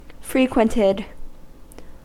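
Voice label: "frequented", American English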